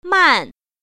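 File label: "man4", Chinese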